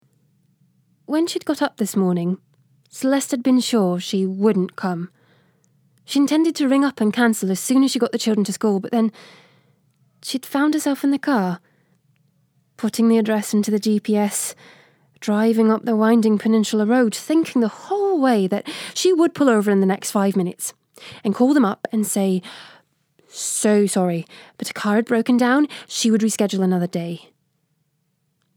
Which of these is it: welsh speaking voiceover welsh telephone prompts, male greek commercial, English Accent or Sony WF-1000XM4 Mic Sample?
English Accent